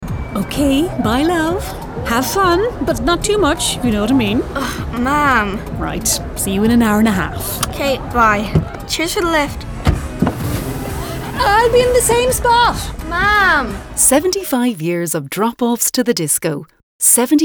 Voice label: radio; Volkswagen; First Dance